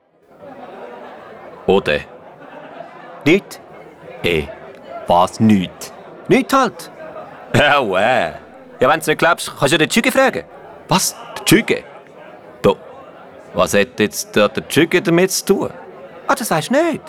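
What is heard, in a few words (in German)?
Hörspiel; Muttersprache Deutsch/Schweizerdeutsch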